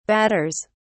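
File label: "batters", British English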